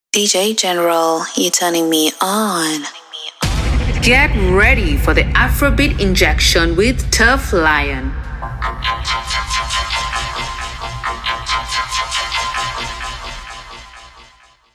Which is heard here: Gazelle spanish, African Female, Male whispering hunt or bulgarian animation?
African Female